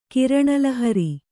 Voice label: "ಕಿರಣಲಹರಿ", Kannada

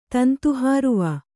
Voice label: "ತಂತುಹಾರುವ", Kannada

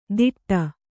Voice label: "ಧಿಟ್ಟ" Kannada

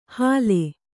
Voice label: "ಹಾಲೆ", Kannada

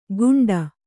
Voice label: "ಘುಂಟ", Kannada

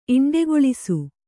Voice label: "ಇಂಡೆಗೊಳಿಸು", Kannada

